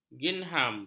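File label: "gingham", Malay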